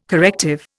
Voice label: "corrective", Indian